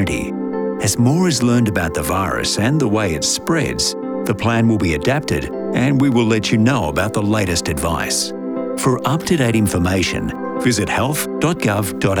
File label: coronavirus covid 19 radio stay informed